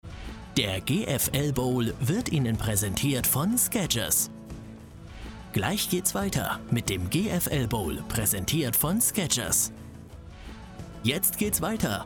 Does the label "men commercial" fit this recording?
No